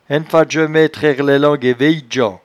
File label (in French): "enefatdjemaistrairelailengue", patois jura